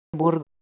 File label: "burg", Middle Persian